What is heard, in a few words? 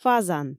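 "фазан" Komi, female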